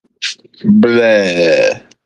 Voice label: voice message